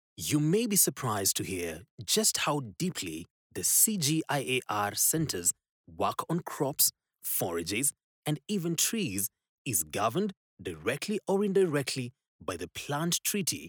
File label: Male English